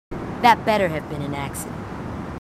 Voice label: marines female, marine tough